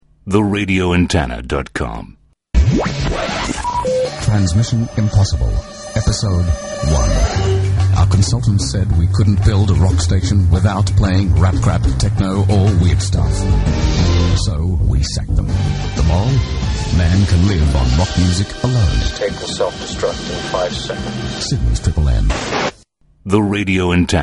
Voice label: PROMO; 2MMM FM; 1996

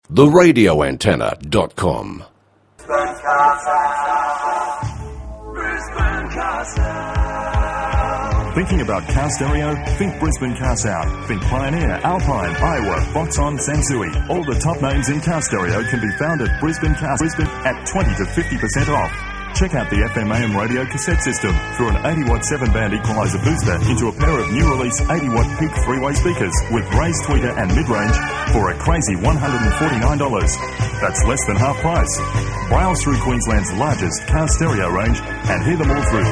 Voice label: carsound ad; Aircheck; FM 104; Brisbane

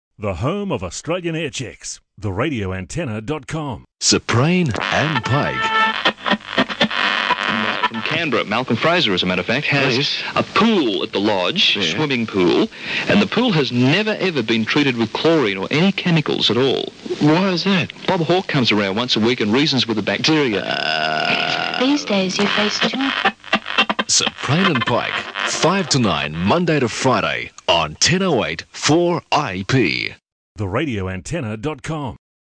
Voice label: promo; 4IP

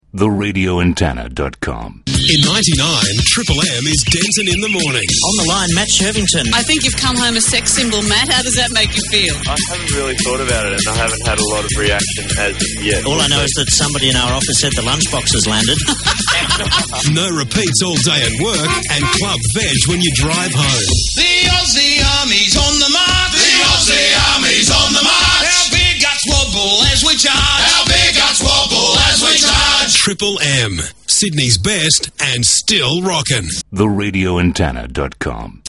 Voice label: promo; Triple M

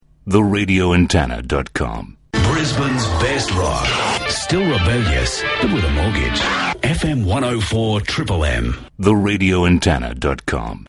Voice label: Aircheck Imaging; FM 104 Triple M; 1995; Dedicated to Australian Radio airchecks

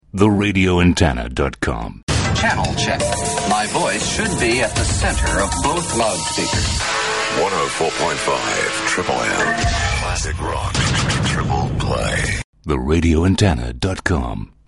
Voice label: Aircheck Classic Rock Triple play; 104.5 Triple M; 1995

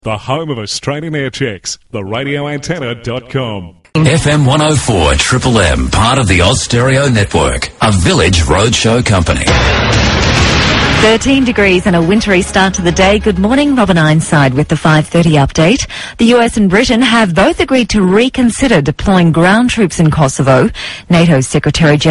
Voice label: News intro; Aircheck; 4MMM FM; 1994

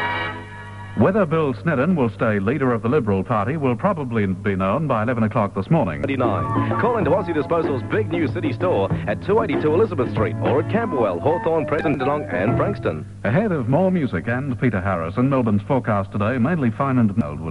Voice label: Radio News; Aircheck; 3XY; Age; 1975

